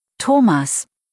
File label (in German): "Thomas", deutsch, jungennamen